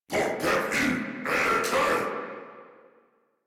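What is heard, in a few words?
Reload, English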